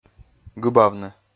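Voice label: "гыбавны", Komi, male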